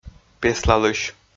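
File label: "песлалысь", Komi, male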